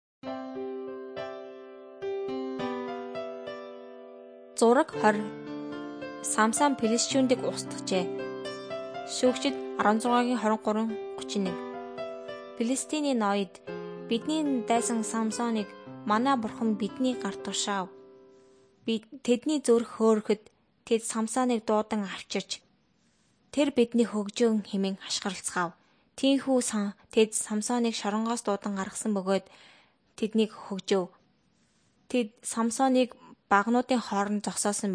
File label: mongolian